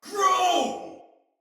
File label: Attack Normal Soldier Male; Battle; Barks; Dialog; Sinecure Soldier Male B